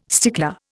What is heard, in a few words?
"stickler", Indian